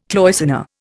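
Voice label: "cloisonné", Indian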